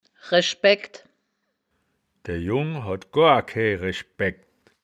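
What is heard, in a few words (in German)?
"resch baeggd", wittlicher woerterbuch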